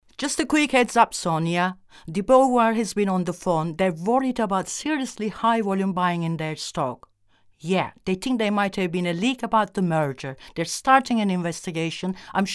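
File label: Turkish, English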